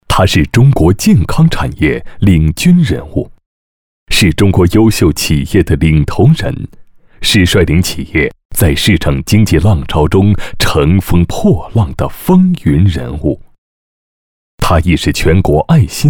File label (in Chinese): 男-大气感情-人物介绍